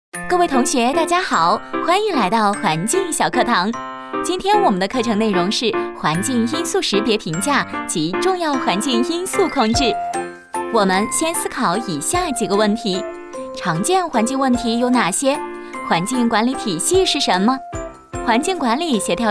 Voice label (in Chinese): 女,MG风格 环境知识讲解小课堂